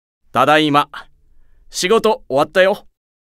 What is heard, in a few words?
Job Notification Voice; Satan